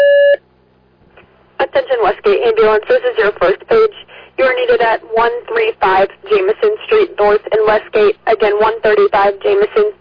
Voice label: Fire, dispatch; Westgate

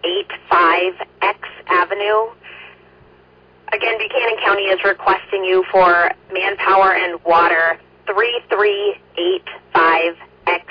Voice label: Oran Fire, dispatch

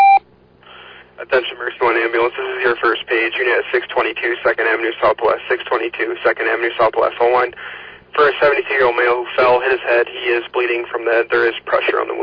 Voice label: Oelwein Ambulance, dispatch

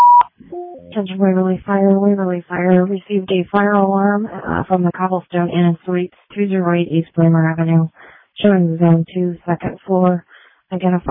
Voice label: Waverly Fire, dispatch

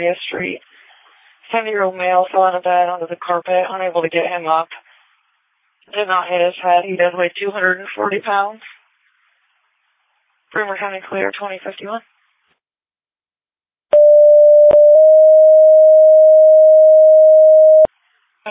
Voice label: Ambulance, dispatch; Denver

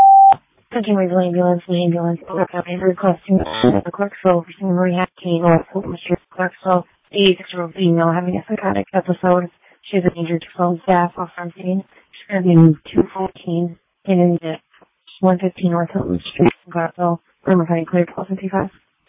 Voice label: Waverly Ambulance, dispatch

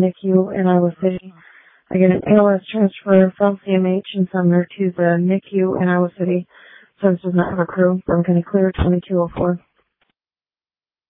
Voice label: Ambulance, dispatch

